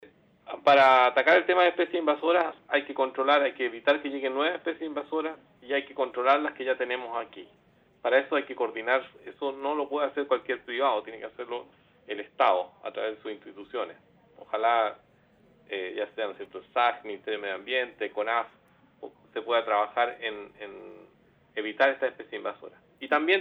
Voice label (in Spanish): reportaje cuña; especies exoticas las principales amenazas a la fauna nativa chilena